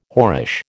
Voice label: "whorish", American

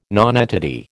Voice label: "nonentity", American

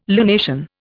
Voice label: "lunation", Indian

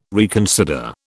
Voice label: "reconsider", British

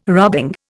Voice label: "rubbing", Indian

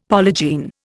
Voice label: "polygene", Indian; Dictionary

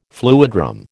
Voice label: "fluidram", American

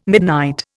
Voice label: "midnight", Indian

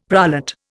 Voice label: "prolate", Indian; Dictionary

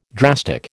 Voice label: "drastic", American

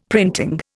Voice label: "printing", Indian; Dictionary

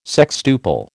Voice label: "sextuple", American

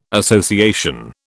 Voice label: "association", British

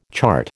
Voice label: "chart", American

